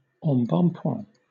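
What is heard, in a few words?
"embonpoint", British English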